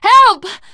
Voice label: horde attack, teen girl, survivor voice